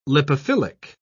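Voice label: "lipophilic", British English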